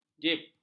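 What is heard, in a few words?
"jib", Malay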